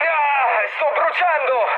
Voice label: on fire, mood low, speech it; War Thunder

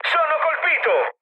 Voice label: aircraft destroyed, mood med, speech it

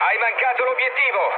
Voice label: bomb failure, mood med, speech it; War Thunder

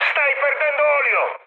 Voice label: damaged oil, mood high, speech it; War Thunder

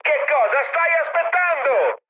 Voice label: attack position, mood high, speech it; War Thunder

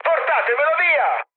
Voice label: aircraft damaged, mood high, speech it

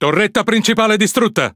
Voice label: Speech Defective Modules Main Gun Destroyed, IT; World Of Warships

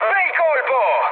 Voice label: bomb success, mood high, speech it; War Thunder

